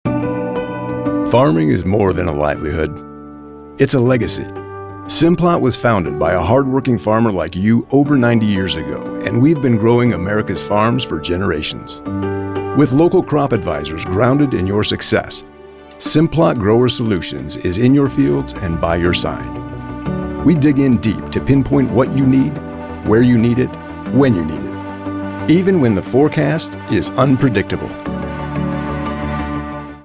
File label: Commercial; Simplot